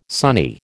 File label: "sonny", American